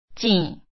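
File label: "chîⁿ", Hokkien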